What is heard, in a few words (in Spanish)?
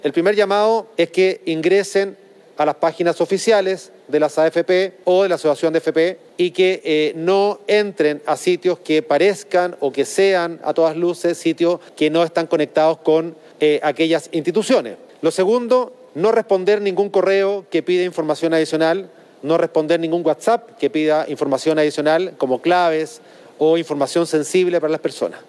cuña